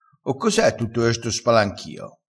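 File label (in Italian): "spalanchio", vocabolario dialettale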